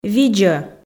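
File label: "видзӧ", Komi, female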